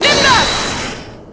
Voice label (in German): "nimm das", German